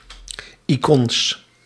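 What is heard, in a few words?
"icons", Dutch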